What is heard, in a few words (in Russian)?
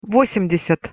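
"vosem desjat", Russian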